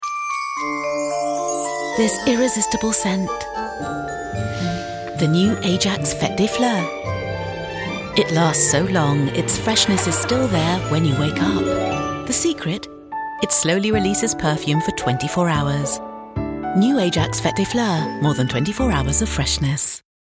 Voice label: commercial; ajax; fete des fleurs

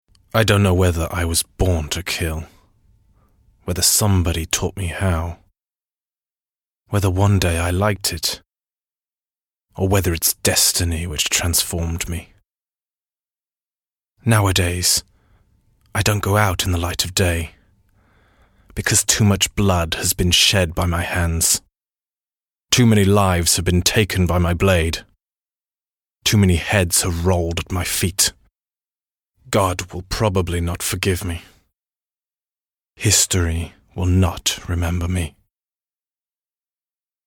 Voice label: UK; UBISOFT; BOURREAU

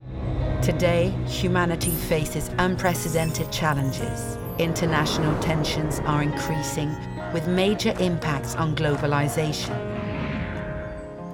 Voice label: Eng; Manifeste Engage; Bouygues Batiment

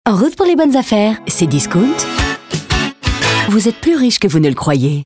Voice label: Radio Sponsoring Traffic; Cdiscount

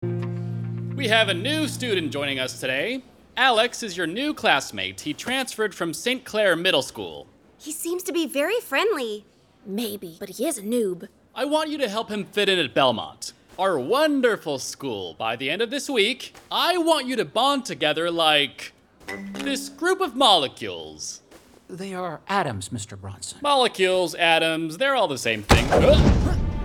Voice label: Animation principal de college US; voix-off

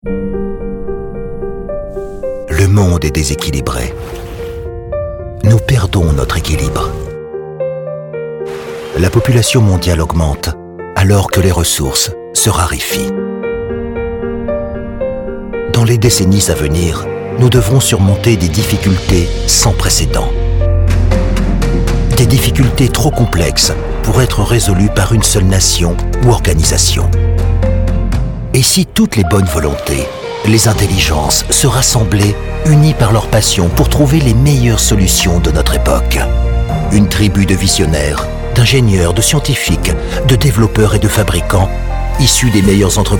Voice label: Corporate; Syngeria

